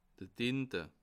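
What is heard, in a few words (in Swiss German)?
"tinte", Bas Rhin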